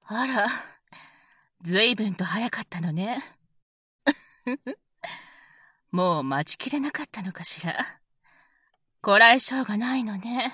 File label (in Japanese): （バイノーラルマイク 効果音なし）; 監禁＆調教開始